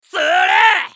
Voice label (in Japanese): ソルジャー男 攻撃長; リボルドウェソルジャー